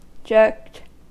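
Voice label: "jerked", American English; pronunciations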